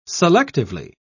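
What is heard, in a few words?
"selectively", British English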